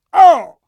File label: pain, metropolice; hl2